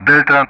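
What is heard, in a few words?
Speech Russian; Delta; Alphabet; DCS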